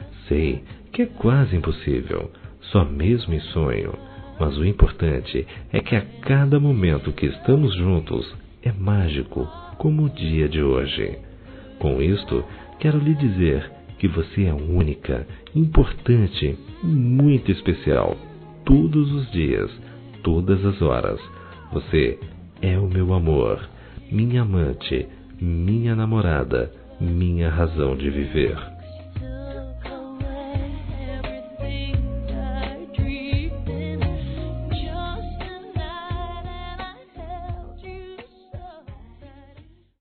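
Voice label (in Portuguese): Namorados pra Amantes, male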